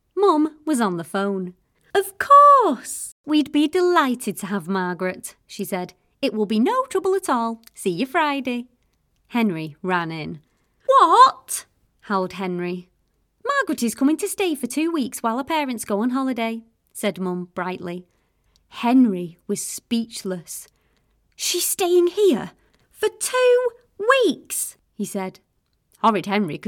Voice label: audiobook reel, voiceover